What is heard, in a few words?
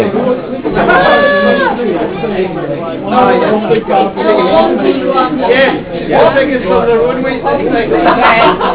babble